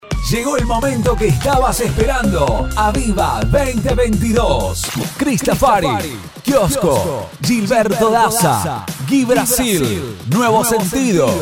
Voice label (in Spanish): Promo Spot Radial; Aviva Argentina